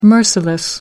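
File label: "merciless", English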